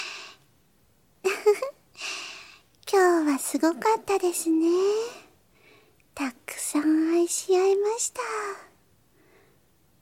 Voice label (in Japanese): あまあまピロートーク; みみあね。 ～世界で1番弟クンを甘やかすお姉ちゃん～【囁き・添い寝・立体音響】; 効果音あり